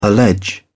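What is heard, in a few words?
"allege", English, British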